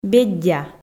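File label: "беддя", Komi, female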